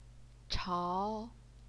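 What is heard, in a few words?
"chao", second tone, Chinese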